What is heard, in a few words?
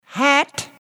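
"hat", English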